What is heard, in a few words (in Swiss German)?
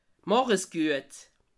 "macha", alsace